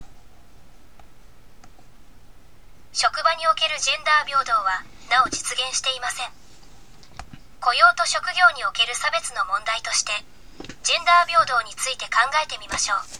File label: elearning; japan; business and human rights